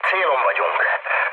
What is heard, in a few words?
near waypoint, mood low, speech hun; War Thunder